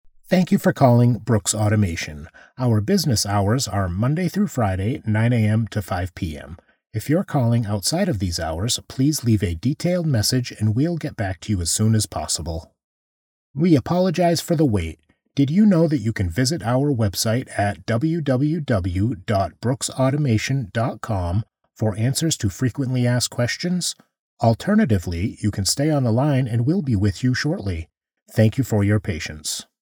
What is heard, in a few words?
ivr